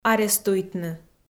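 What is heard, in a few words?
"арестуйтны", Komi, female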